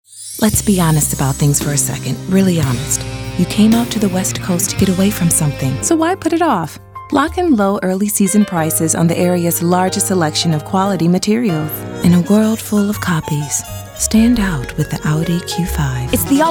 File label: commercial